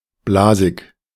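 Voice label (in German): "blasig", German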